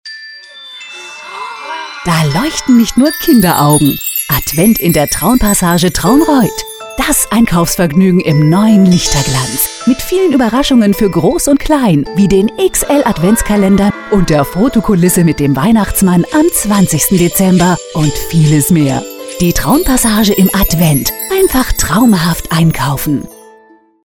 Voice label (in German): Werbung festlich